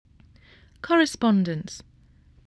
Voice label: "correspondence", voice spelling

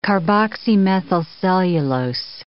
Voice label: "carboxymethylcellulose", English

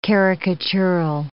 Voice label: "caricatural", English

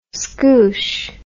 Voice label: "scoosh", English

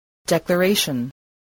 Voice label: "declaration", English

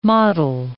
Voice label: "mottle", English

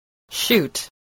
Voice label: "shoot", spelling

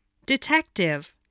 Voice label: "detective", english spelling

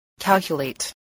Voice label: "calculate", spelling

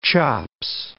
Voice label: "chops", English

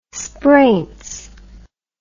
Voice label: "spraints", english

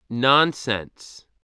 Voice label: "nonsense", voice

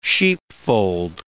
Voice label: "sheepfold", English

